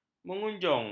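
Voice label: "mengunjung", Malay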